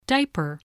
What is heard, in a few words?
"diaper", english spelling